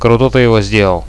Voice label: nice shoot, radio bot; Reallite